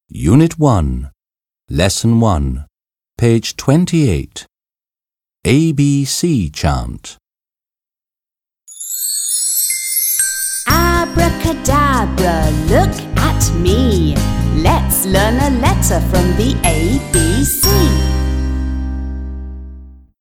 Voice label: Alphabet Chant